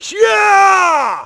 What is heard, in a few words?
yell, Positive; Splashdown; XBOX